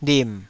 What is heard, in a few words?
"lim3", Taiwanese syllable